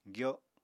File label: "gyo", Apatani